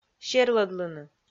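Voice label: "серлӧдлыны", Komi, female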